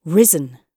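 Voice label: "risen", British English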